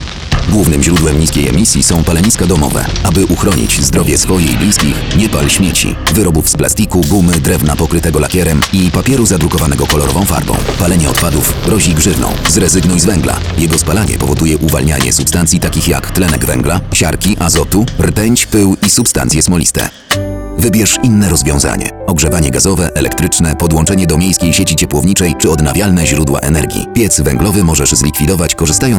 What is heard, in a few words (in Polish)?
spot radiowy; Edukacja ekologiczna